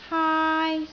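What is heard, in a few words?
"haai1", Cantonese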